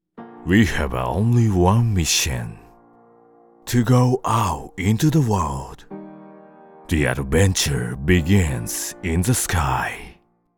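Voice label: Japanese male English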